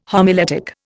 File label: "homiletic", Indian